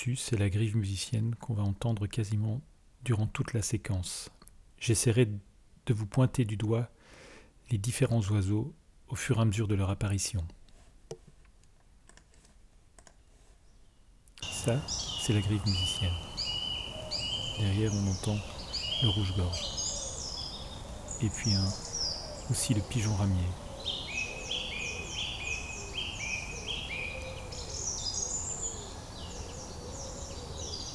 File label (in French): ambiance sonore commentee